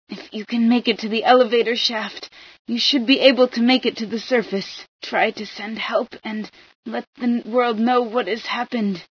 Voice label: elevator, female